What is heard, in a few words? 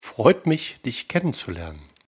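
"freut mich", German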